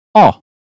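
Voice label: British phonetics sound sock; 英语音标发音（剑桥版）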